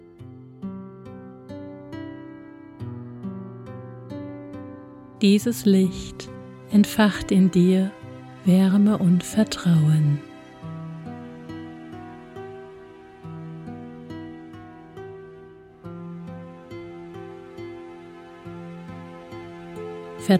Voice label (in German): Meditation zur Verbindung mit deiner Intuition